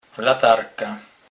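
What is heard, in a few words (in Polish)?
"latarka", Kurpie dialect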